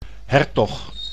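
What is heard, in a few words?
"hertog", Dutch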